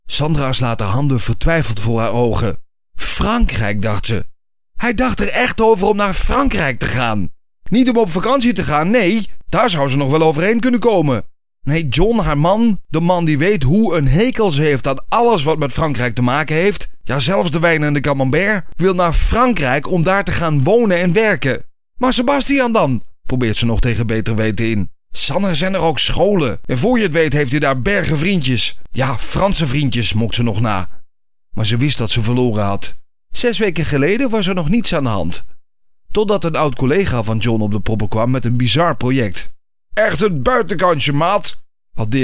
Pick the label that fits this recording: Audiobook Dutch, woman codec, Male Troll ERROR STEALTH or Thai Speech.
Audiobook Dutch